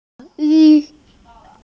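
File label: "mao yi" Chinese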